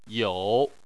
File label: "you3", Chinese